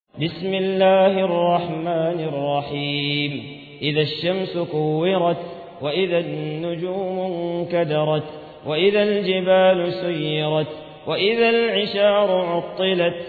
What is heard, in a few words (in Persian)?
ترتیل سوره تکویر